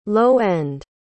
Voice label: "low-end", pronounce

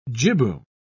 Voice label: "jibboom", pronounce

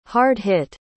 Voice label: "hard-hit", pronounce

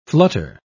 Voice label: "flutter", pronounce

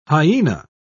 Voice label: "hyena", pronounce

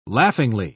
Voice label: "laughingly", pronounce

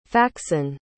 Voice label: "faxon", pronounce

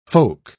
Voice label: "folk", pronounce

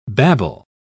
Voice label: "babble"

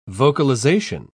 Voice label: "vocalization", pronounce

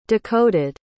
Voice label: "decoded", pronounce

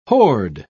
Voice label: "horde", pronounce

